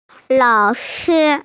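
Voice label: "teacher", Mandarin; lao3shi1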